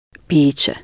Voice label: drink, croatian